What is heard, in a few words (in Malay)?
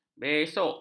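"besok", Malay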